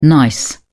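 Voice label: "gneiss", British English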